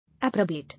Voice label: "Approbate", English